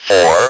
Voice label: speak and spell "four"; NUMBERS; VOCALS and SPOKEN WORD